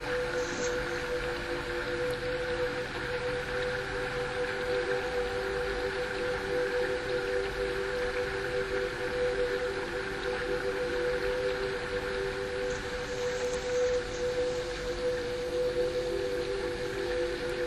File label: visualization to clear your energy mini meditation